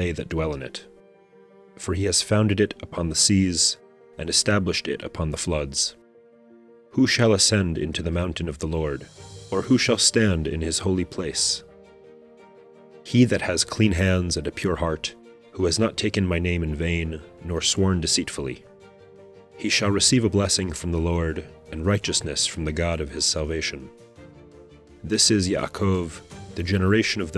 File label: poetry and prayer psalm english